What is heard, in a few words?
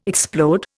"explode", Indian